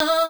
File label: vocal glitch E